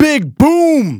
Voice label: Phrase Male Rap; Vengeance Samples

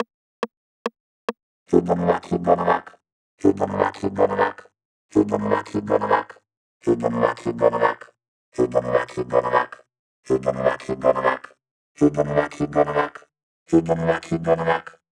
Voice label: Gonna Rock (F-E 140 BPM), Shouts; Vengeance Samples

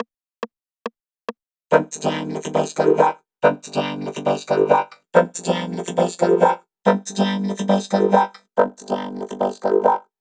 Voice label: (G - 140BPM), Shouts; Vengeance Samples

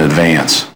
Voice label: LoFi Words, LoFi Vocals

